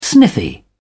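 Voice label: "sniffy", British English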